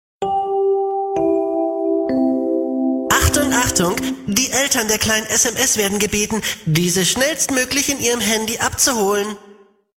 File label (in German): die durchsage